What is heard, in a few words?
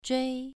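"zhui", Mandarin Chinese, second tone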